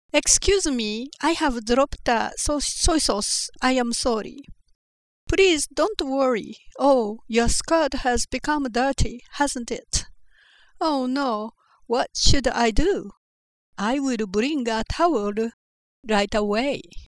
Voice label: Asian Accent; foreign languages